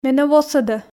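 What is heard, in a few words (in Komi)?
"менӧ восӧдӧ", Komi, female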